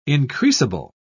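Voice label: "increasable", English, British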